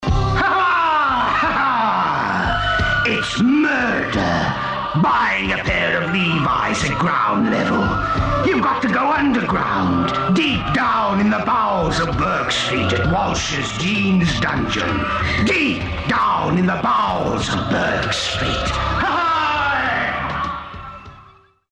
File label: pirate; burks street